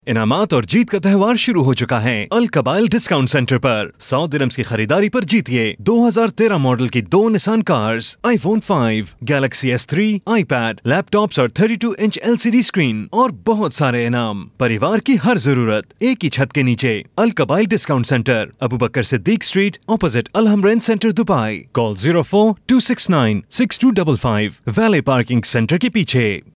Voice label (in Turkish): urduca seslendirme erkek sesi